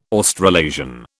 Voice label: "Australasian", British